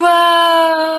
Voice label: "woah" kid; ooh ahh